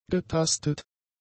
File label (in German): "getastet", german; adjectives